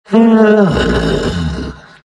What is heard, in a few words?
happy; Rubick